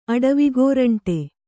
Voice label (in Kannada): "ಅಡವಿಗೋರಂಟಿ", Kannada